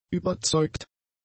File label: "überzeugt", German; adjectives